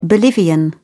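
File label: "bolivian", British English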